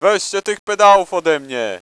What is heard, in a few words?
nervous; cstrike; hosty